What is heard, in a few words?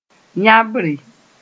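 "yãbre", Mossi; crying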